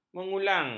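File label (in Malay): "mengulang", Malay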